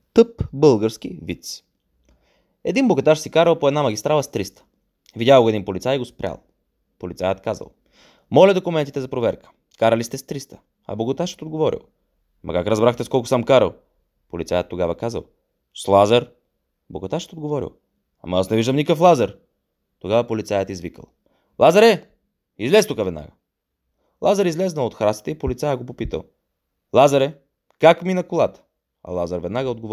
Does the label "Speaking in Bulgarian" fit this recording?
Yes